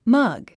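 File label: "mug", English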